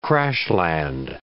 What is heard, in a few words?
"crash-land", English